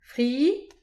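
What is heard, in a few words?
"fri", Breton; petit precis de prononciation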